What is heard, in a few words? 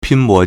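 "pīnbó", Mandarin Chinese; 拼搏; verb; to struggle; to wrestle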